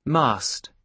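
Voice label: "mast", British English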